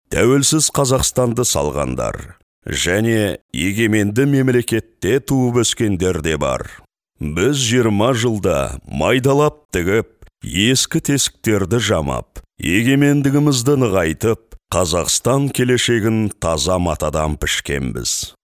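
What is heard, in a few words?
kazak male narration; voice demos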